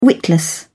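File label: "witless", English, British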